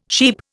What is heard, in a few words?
"sheep", Indian